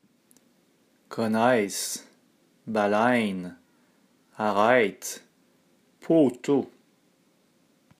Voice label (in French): diphtongues bien fortes